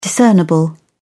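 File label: "discernible", British English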